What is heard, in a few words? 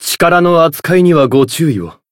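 growth, Japanese; shion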